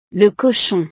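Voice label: "le cochon", French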